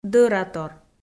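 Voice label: "дӧратор", Komi, female; kpv audio dict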